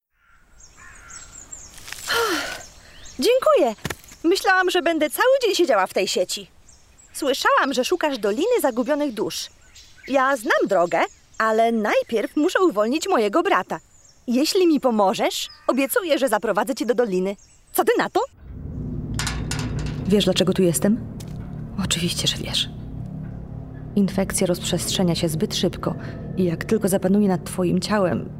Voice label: Gaming POLISH